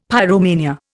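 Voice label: "pyromania", Indian English; Dictionary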